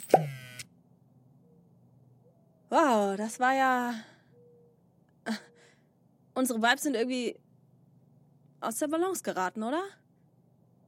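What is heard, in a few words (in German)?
Monolog, Sprecherin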